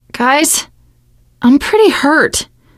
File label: going to die, teengirl; voice; source engine; left 4 dead 2; survivor